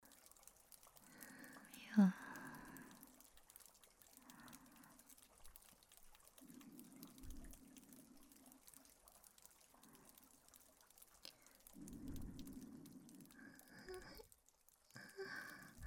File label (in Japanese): 百合 添い寝, BGMなし; 天才博士とロボットの少女